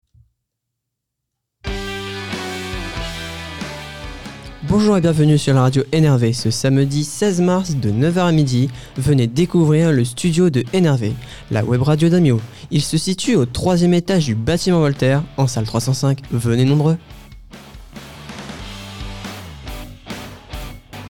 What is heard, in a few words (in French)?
Promotion webradio portes ouvertes